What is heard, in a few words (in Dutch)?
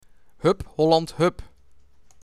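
"Hup Holland Hup!", Dutch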